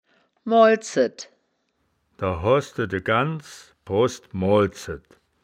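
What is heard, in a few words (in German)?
"moalzed", Wittlicher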